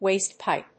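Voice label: "waste pipe", English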